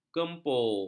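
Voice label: "gempur", Malay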